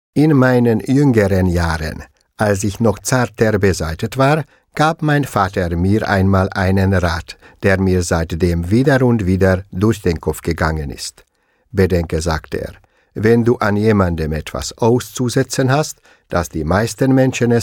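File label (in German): deutsch mit ungarischem akzent feature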